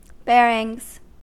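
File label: "bearings", US English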